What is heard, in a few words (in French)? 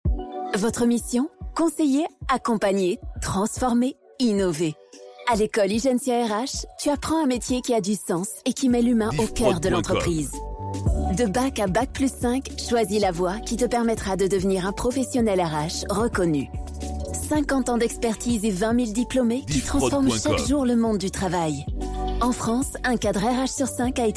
Spot Ecole RH; Igensia; Spotify